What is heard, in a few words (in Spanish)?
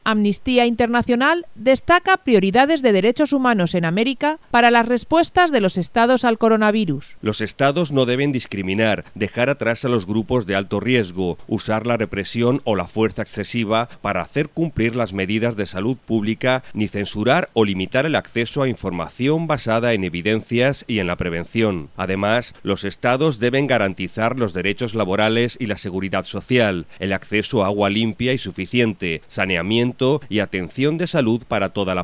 informativo; amercia latina derechos frente coronavirus